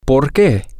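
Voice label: why, Spanish, male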